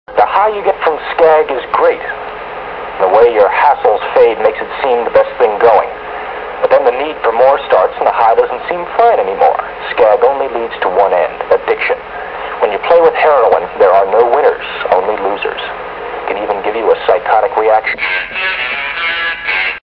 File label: Skag (Heroin) PSA